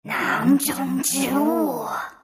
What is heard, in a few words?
lasthit, zh; Dota 2